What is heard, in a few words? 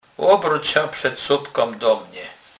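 "przedsobek", Polish, Kurpie dialect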